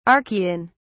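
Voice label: "archaean", British English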